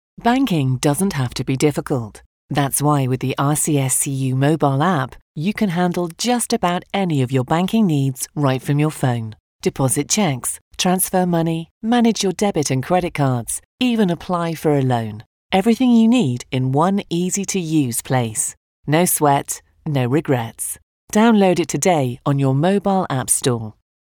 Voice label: Professional